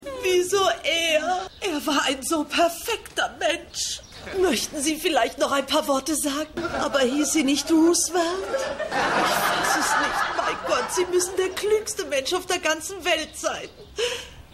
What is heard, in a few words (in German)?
Trauernde; Stimmproben